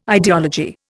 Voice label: "ideology", Indian

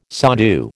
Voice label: "sadhu", American

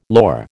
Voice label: "lor", American

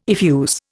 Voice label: "effuse", Indian

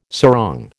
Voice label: "sarong", American

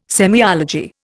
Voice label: "semiology", Indian

